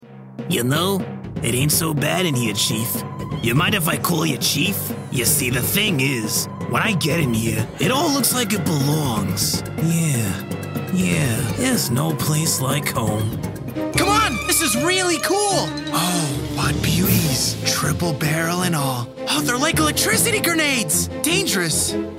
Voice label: Voice Over

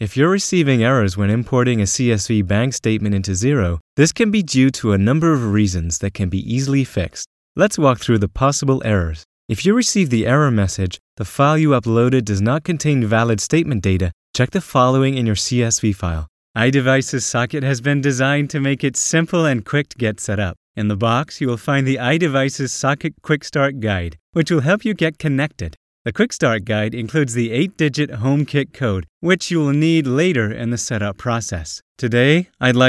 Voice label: American E-Learning

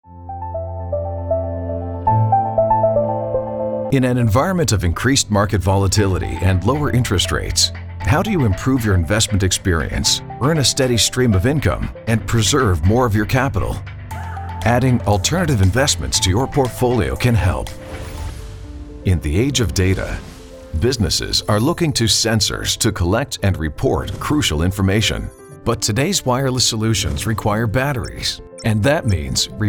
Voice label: Corporate Narration

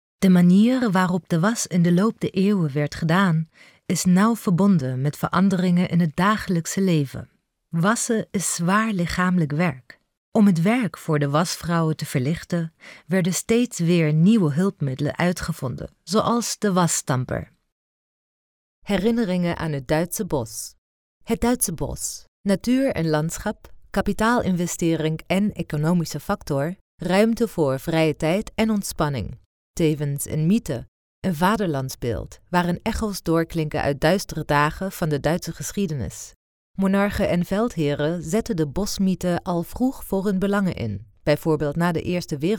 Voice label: NL audiotours

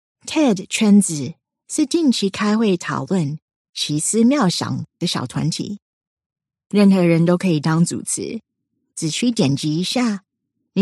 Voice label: Mandarin

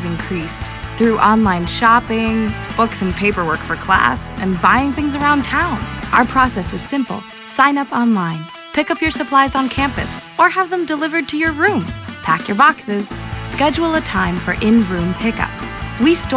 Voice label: College Storage Ad English

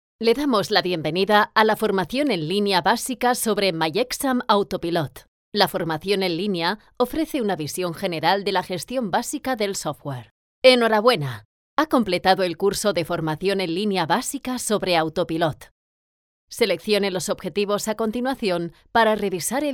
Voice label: Spanish e-learning vídeo formación software

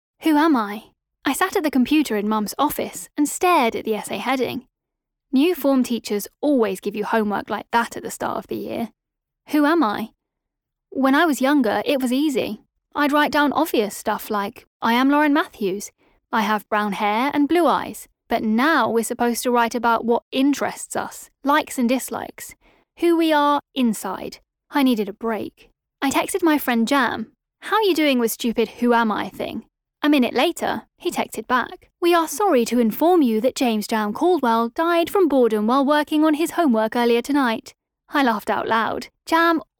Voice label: Narrative

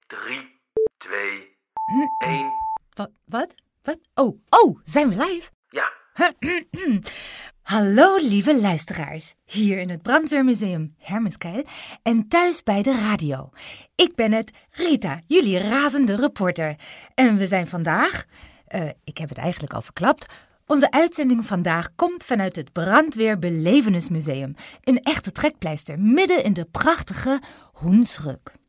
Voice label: NL Audioguide Feuerwehrmuseum

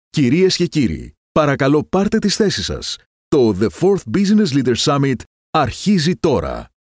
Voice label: Greek in door Announcements

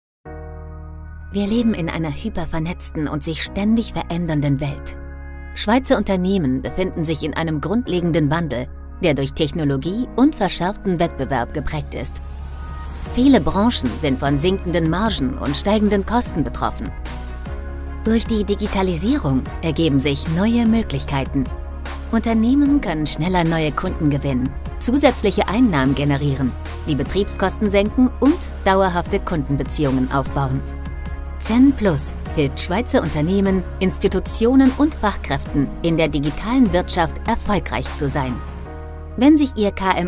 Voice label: Corporate Video; ZehnPlus

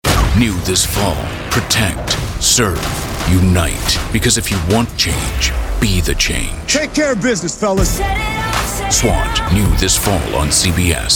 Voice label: NETWORK PROMO

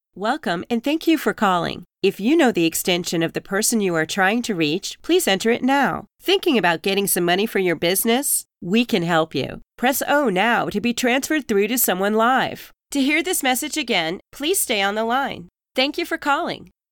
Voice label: IVR

